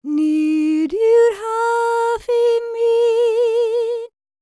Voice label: WELSH F, World Voices

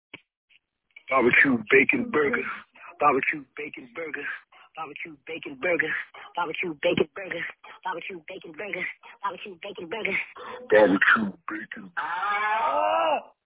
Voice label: voicemail

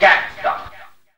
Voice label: Gangsta Vox

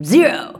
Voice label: "zero", shouts; Best Service; Hyper Dance

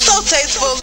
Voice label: vox tasteful; E Lab; XTc Files of House

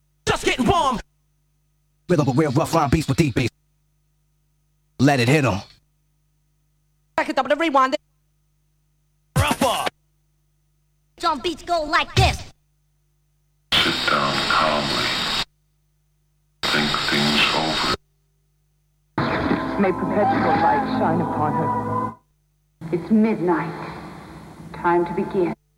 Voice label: Vocal Drops; Kniteforce; 1000 Samples Volume 1

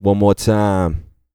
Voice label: bit, Vocal, R&B Dance, hip-hop; TB HIP-HOP

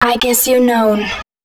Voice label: female, spoken hooks

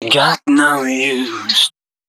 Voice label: male d#130, Dance Ultra Voice hooklines

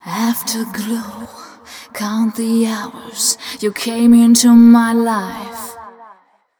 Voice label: fem spo, Vocals

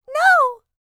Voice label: LICKS, FEM SPOKEN; R&B & Dance; ILIO; Vocal Planet

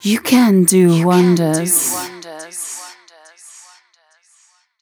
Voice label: f 140 spo, fem Vocals

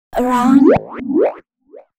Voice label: female spoken hooks, dance ultra voice

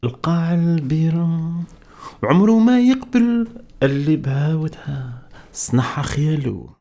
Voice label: POET, POET VOICE, Arabian Traditions; USB Soundscan vol.43; AKAI

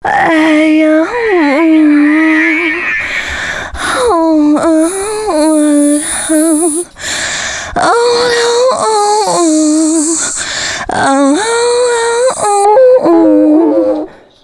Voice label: female c#133, adlips

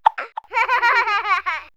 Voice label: Comedy Baby Android Talks, vox; X-Static Goldmine

